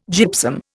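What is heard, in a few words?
"gypsum", Indian English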